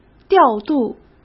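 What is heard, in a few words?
"调度", Mandarin Chinese; hsk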